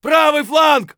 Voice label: flank right, voice; Escape from Tarkov